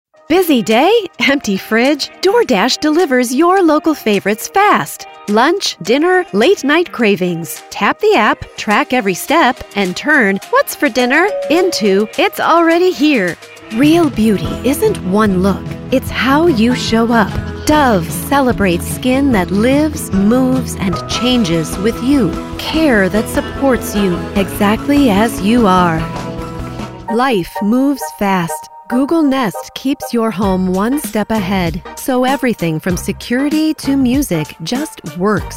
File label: COMMERCIAL